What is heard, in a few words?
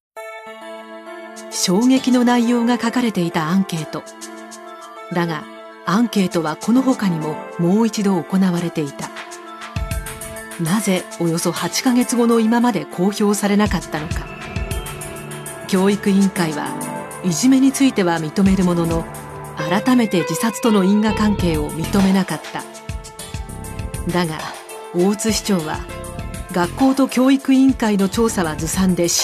documentary cool